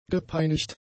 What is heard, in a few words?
"gepeinigt", German; adjectives